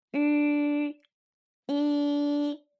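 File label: "vi", Mandarin pinyin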